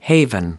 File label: "haven", us